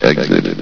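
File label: "exit", male; Source Engine; bshift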